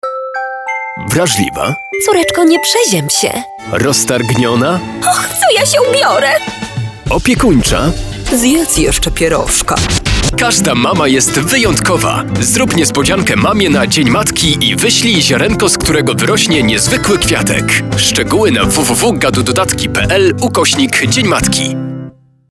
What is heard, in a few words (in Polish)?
spot